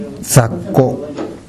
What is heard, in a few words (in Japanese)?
"zakko", minami yamagata